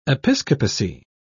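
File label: "episcopacy", British English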